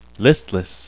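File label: "listless", English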